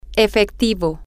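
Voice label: cash, Spanish, female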